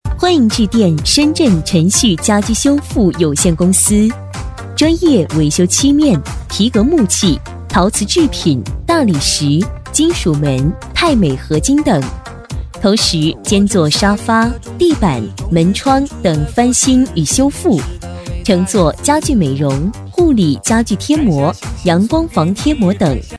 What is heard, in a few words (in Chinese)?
彩铃 女 时尚; 陈旭家居